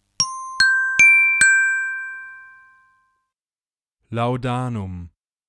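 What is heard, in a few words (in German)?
"Laudanum", German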